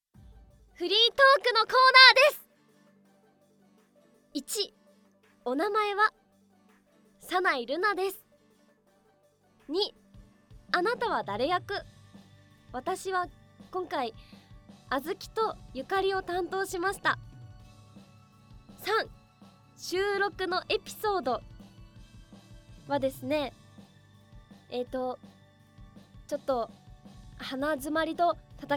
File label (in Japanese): フリートーク